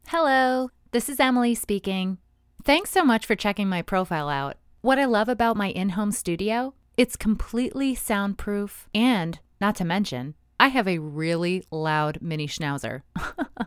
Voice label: studio

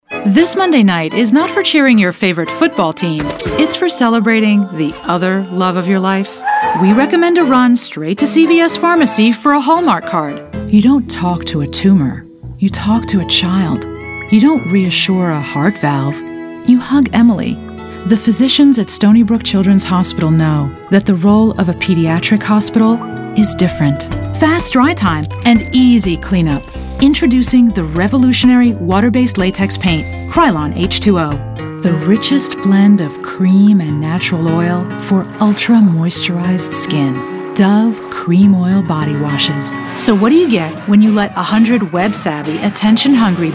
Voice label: English Commercial

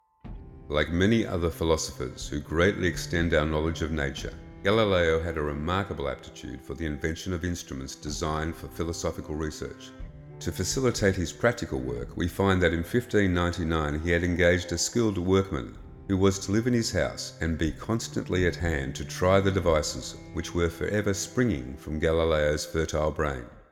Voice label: narration read with backing a little effecting

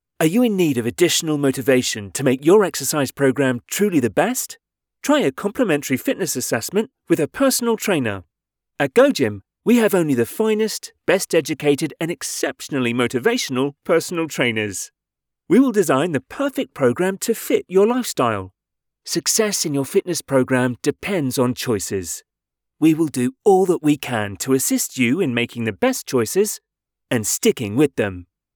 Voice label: UK

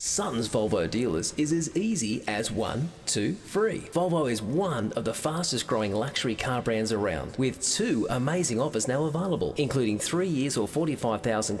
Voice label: RADIO; SUTTONS

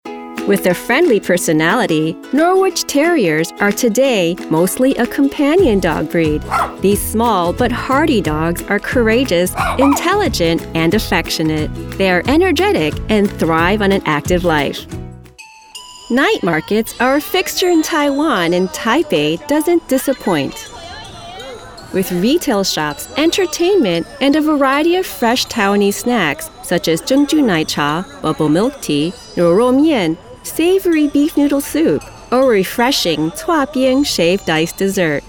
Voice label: Narration VO

